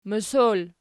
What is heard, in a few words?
"mosol", Archi